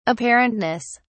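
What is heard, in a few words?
"apparentness", British English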